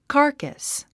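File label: "carcass", English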